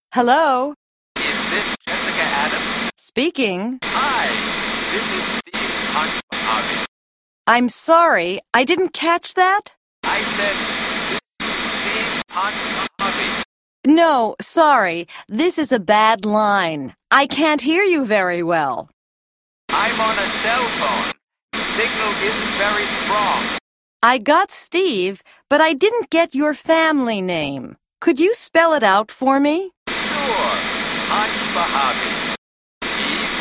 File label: Telephone, english listening survival